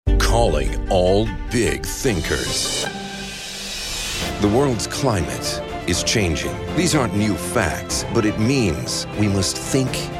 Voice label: RADIO

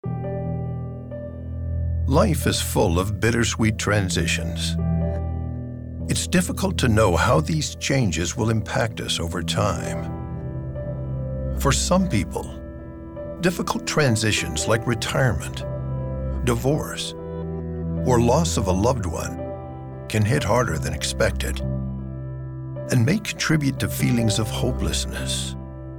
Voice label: Radio

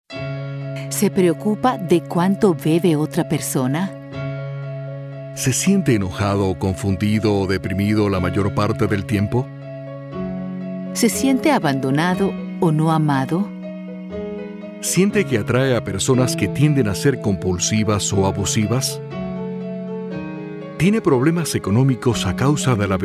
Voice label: SPANISH questions; AlAnon

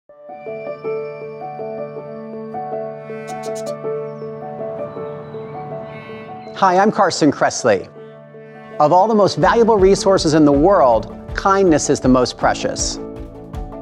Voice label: PSA radio

